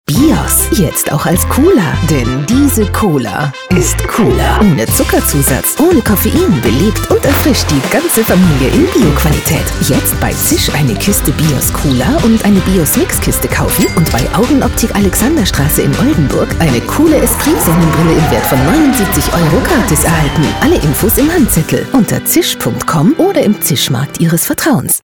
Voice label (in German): Radiospot Cola; Bios